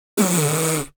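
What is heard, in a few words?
jeers, Spanish; Scout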